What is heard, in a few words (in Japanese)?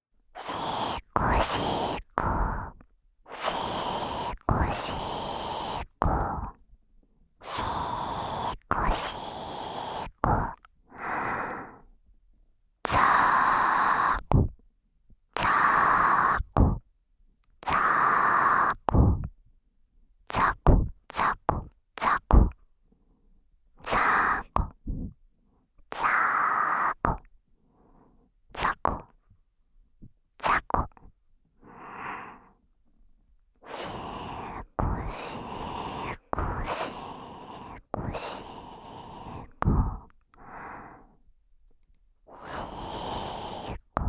耳元煽り 手コキ煽りループトラック, ASMR; しこしこ雑魚チンポ